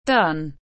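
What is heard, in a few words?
"done", English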